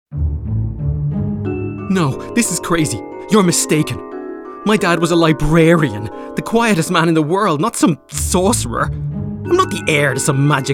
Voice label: Character Animation Games VO